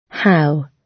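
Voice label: "how", British English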